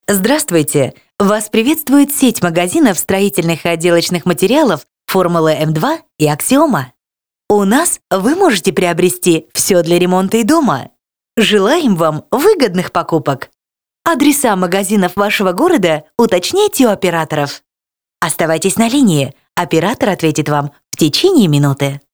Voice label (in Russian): Автоответчик